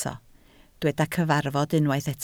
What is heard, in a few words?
"cyfarfod", Welsh